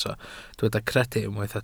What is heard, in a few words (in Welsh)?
"credu" Welsh